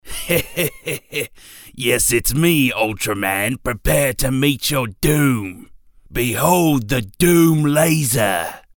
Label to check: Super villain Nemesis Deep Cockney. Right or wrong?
Right